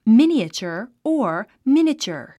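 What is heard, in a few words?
"miniature", English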